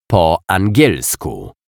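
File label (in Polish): "po angielsku", Polish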